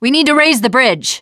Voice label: spotting sailboat, teen girl